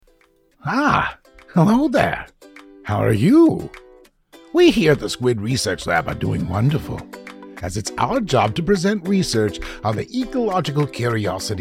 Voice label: Wacky Scientist, Character Voice; ZANY SCIENTIST